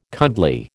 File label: "cuddly", American